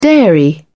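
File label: "dairy", British English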